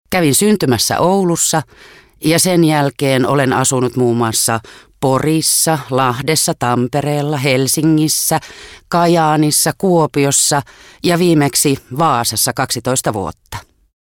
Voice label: fin f